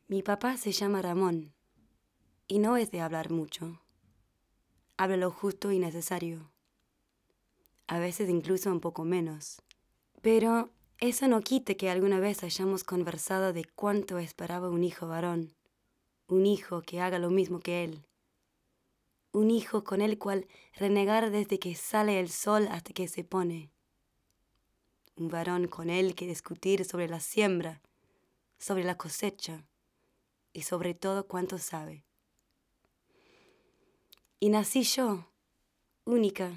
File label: Spanish narration